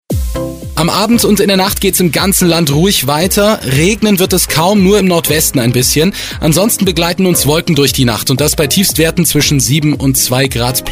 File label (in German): instore audio Infotainment; echion